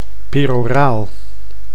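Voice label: "peroraal", Dutch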